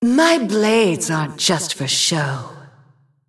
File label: Female Shivarra